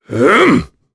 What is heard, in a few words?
Casting, jp; Kaulah; kings raid